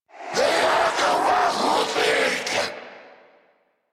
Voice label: Surprised; Dialog; en; Warframe; Zariman; Zariman Wraith